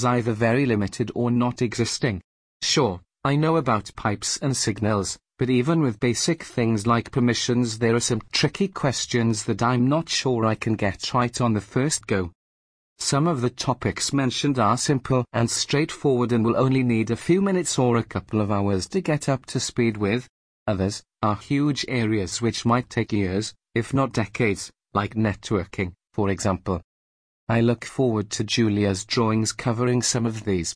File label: english welsh linux